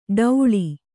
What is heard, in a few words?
"ಠೌಳಿ", Kannada